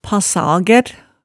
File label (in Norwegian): "passagær", Hemsedal